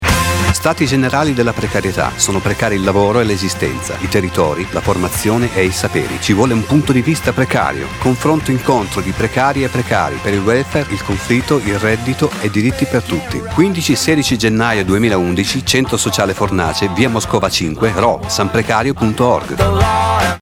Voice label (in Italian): spot; stati gen precarieta